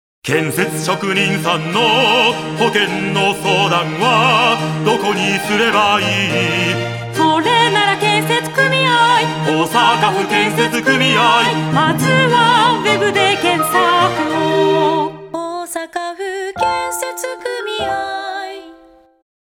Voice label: radio; osaka